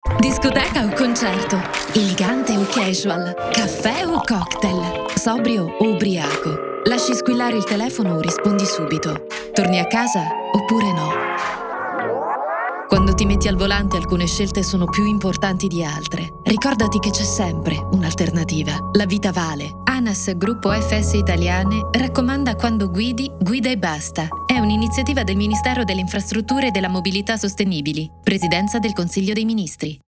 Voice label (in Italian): radio femminile; vite parallele